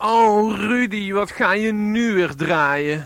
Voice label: Jingle Rudi, wat ga je nu weer draaien; Allerhande

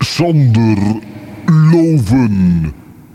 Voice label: Naamjingle

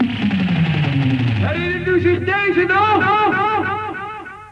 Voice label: Jingle herinnert u zich deze nog kort; Allerhande

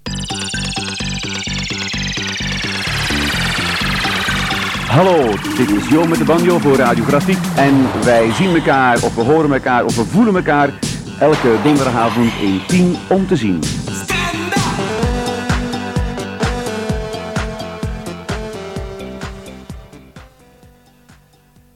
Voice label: Aankondiging

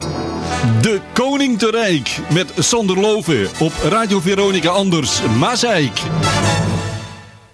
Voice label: Jingle koning te rijk

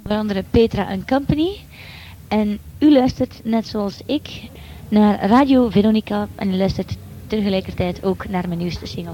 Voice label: Aankondiging plaat door artiest

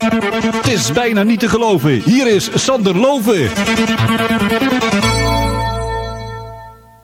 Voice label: Jingle niet te geloven

